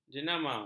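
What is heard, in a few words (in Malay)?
"jenama", Malay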